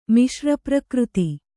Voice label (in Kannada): "ಮಿಶ್ರಪ್ರಕೃತಿ", kannada